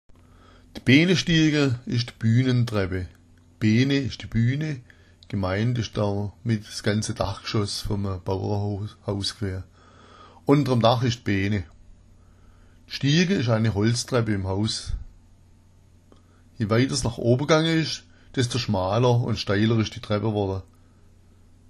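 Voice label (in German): "behnestiaga", schwäbisch; die Behnestiaga ist die Bühnentreppe.; Behne ist die Bühne, gemeint war damit das gesamte Dachgeschoss eines Bauernhauses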